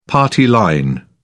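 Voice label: "party line", British English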